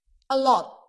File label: Voice Acting es F